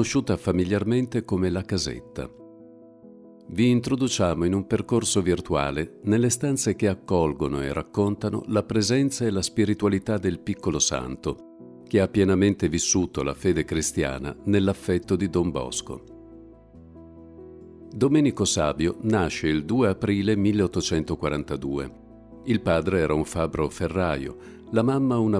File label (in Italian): AUDIOGUIDE, Benvenuti; Casetta Domenico Savio